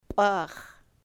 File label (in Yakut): "p'aax̱", sahaptin